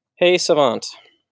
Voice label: hey savant, wake word, en